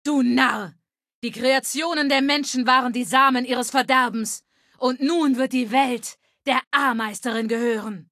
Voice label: Female adult default battle; Kategorie:Fallout 3: Audiodialoge

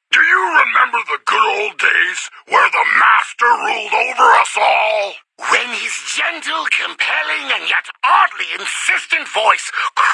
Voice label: Advertisements

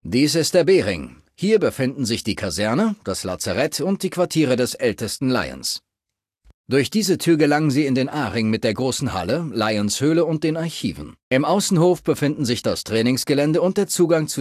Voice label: Male adult default dialogue

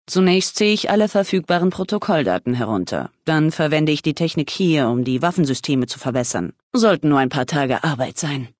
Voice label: Female adult

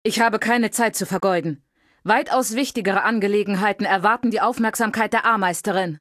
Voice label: Female adult default greeting; Kategorie:Fallout 3: Audiodialoge

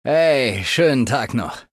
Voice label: Male adult default dialogue goodbye

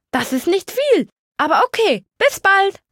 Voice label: Male unique dialogue

